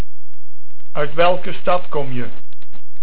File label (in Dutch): "Stad", Dutch